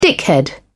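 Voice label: "dickhead", British English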